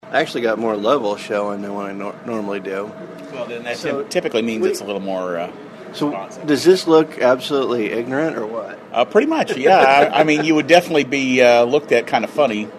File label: iphone mini mic